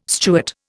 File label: "Stuart", Indian